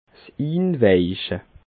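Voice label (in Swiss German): "inweiche", Alsatian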